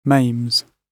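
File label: "maims", British English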